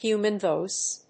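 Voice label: "Human Voice", English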